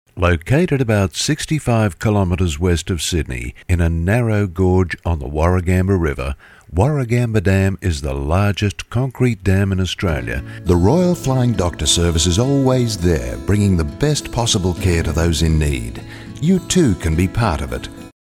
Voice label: corporate